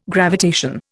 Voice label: "gravitation", Indian

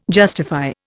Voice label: "justify", Indian

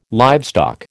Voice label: "livestock", American

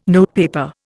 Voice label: "notepaper", Indian

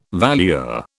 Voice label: "valuer", British

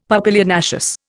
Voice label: "papilionaceous", Indian; Dictionary

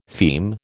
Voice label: "feme", American

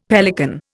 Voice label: "pelican", Indian; Dictionary

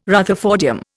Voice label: "rutherfordium", Indian

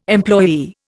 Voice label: "employee", Indian

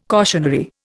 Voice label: "cautionary", Indian

